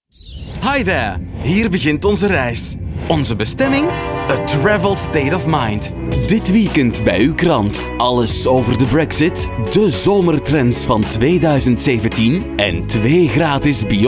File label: commercial